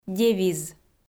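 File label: "девиз", Komi, female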